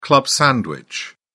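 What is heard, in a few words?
"club sandwich", English, British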